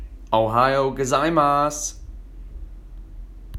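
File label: good morning japanese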